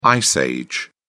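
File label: "ice age", British English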